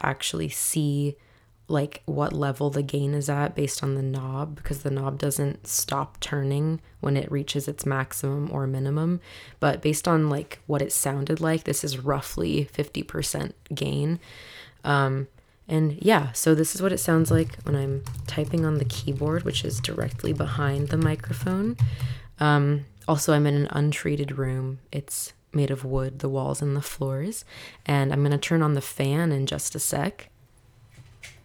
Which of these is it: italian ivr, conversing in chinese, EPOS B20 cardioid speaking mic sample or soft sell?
EPOS B20 cardioid speaking mic sample